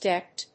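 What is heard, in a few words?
"DECT", English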